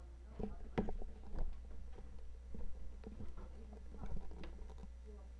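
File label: "bicycle", spoken word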